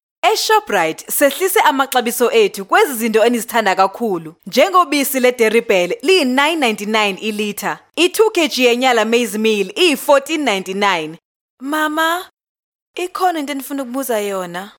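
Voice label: Xhosa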